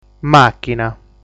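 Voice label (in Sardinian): "Màchina", Sardinian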